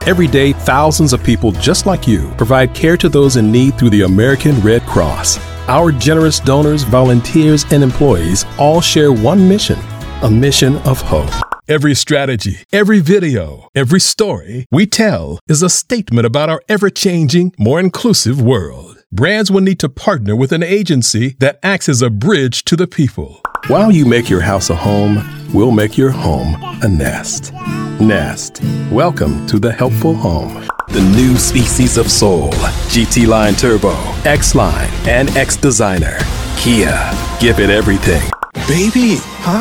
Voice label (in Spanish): ingles